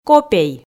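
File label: "копей", Komi, female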